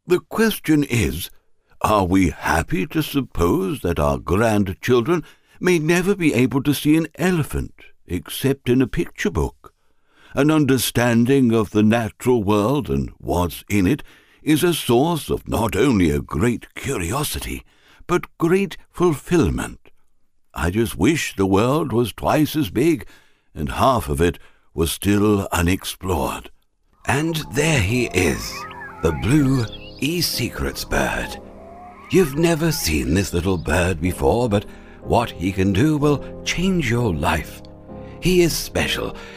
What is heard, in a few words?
English UK